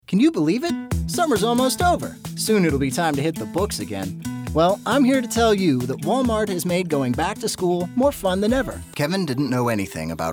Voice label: Commercial